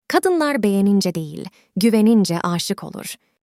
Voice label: Turkish; "Kadınlar beğenince değil, güvenince âşık olur."; DeepVoice AI - Text To Voice